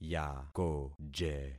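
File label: "yakoje", concatenated syllables; Affixing experiment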